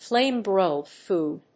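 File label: "flame-broiled food", English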